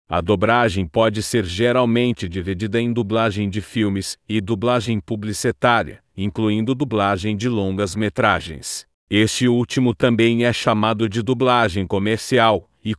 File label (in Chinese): 样本葡萄牙语